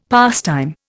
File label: "pastime", Indian; Dictionary